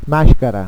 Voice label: "maskara", Carigliaro; màsckara